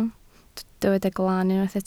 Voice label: "glan", Welsh